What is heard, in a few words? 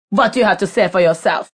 Say For Yourself, Female; Talking; Voice Box